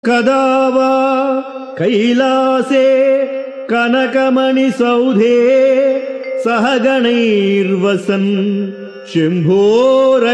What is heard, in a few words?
Sanskrit; Sri Adi Sankaracharyas Sivananda Lahari; Adi Shankaracharya; श्रीशिवानन्दलहरी २४